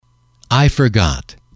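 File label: "I forgot", English, male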